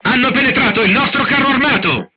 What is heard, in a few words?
player tank hit, crew tanks italian; War Thunder